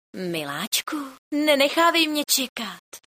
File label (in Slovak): sms hlásky cz